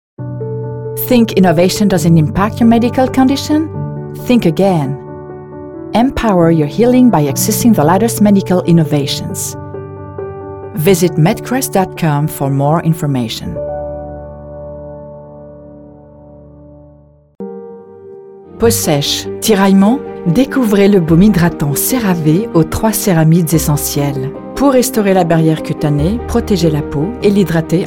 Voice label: Voiceover Demo